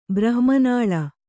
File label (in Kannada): "ಬ್ರಹ್ಮನಾಳ", kannada